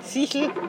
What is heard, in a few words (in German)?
Dialekte